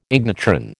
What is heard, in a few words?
"ignitron", American